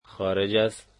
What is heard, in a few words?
outside, Dari